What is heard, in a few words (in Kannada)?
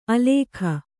"ಆಲೇಖ", Kannada